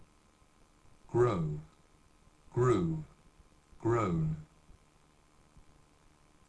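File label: "grow", English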